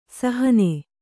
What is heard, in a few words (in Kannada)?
"ಸಾಹಣ", kannada